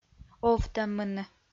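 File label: "овтӧммыны" Komi-Zyrian, female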